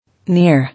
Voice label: "Near", English